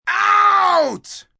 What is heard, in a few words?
Sports Out, umpire, male, baseball; Talking; VoiceBox